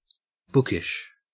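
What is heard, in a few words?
"bookish", Australian English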